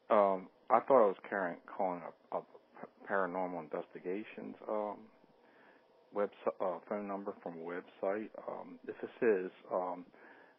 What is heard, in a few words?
totally weird voice mail; asking for a paranormal investigations person